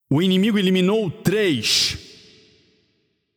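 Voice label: Announcer PTBR Spree Classic Enemy 3 Kills, Brazilian Portuguese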